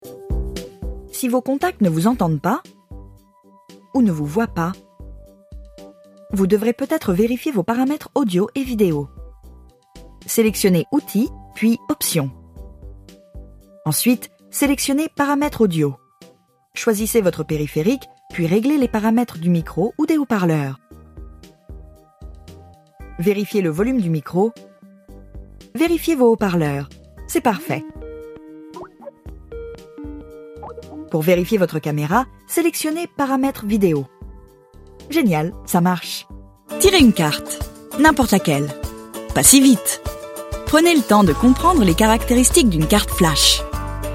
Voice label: French explainers

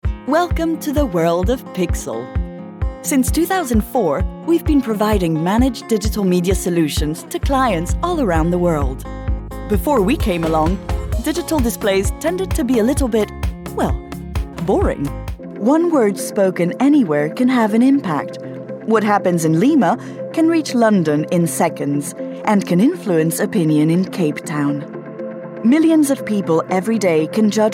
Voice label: International English, Corporate

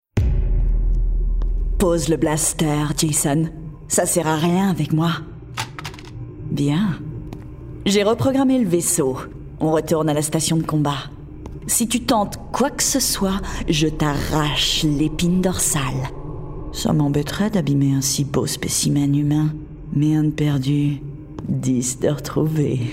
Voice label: French Gaming Evil Bot

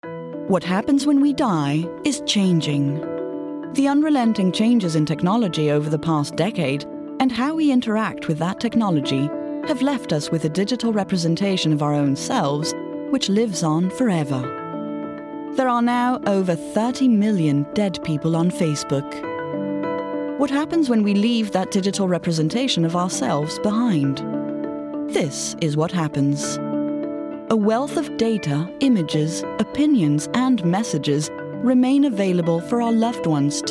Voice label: doco RP

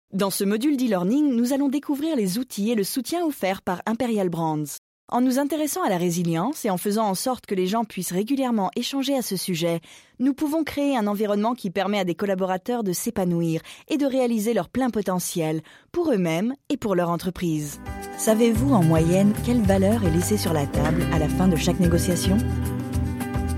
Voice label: French corporate

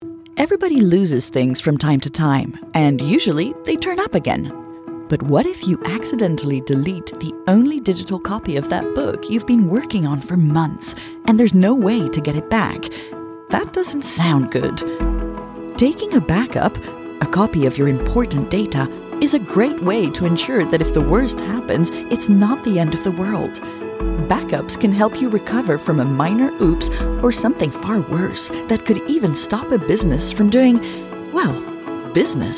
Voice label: International Eng; OpenClassrooms

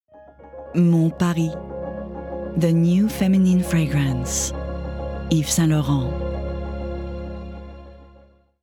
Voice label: French, Eng; Accent français; élégant; YSL

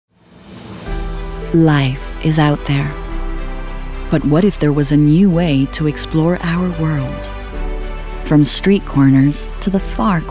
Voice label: International Eng, voiceover commercial; NorthFace

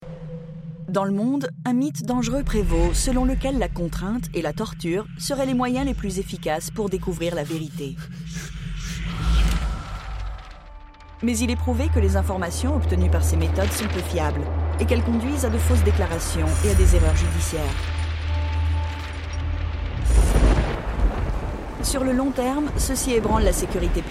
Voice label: French charity

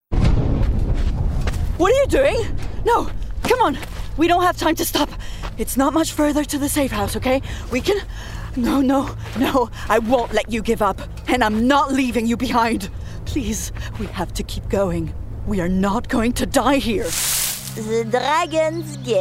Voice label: Gaming English

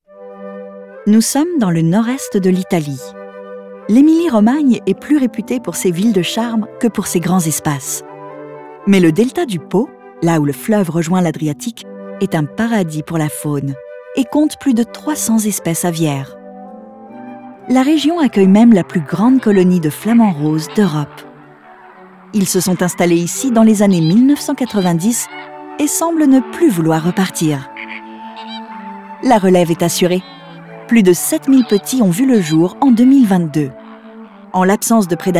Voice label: Narrative French

